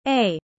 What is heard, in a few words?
fast